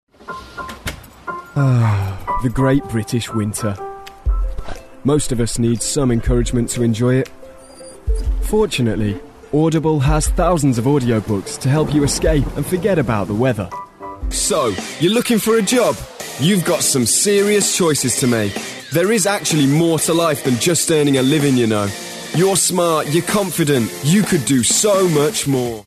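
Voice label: English, UK, male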